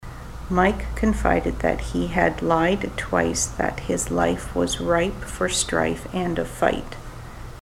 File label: mike lied twice, ontario